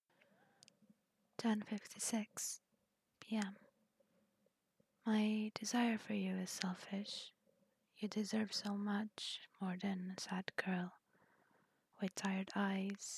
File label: poem